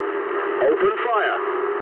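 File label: English attack position mood low; War Thunder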